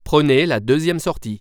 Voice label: Second, french; waze

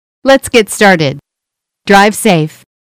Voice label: Start Drive, eng; waze

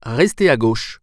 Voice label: keep left, french; waze

